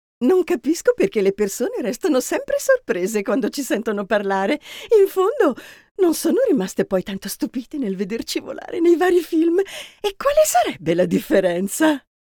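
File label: Character Italian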